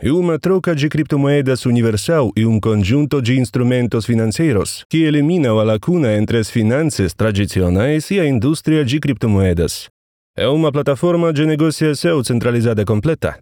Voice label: lang portugalskij

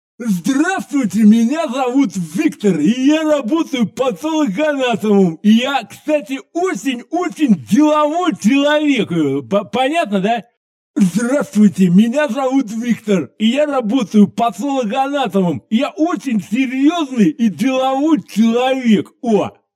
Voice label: muzhchina s defektom dikczii

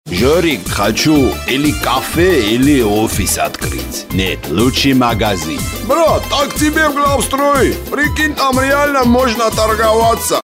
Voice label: armyanin armyanskoe radio

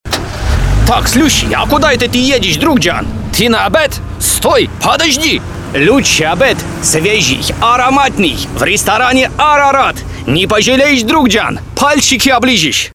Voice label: kavkazec

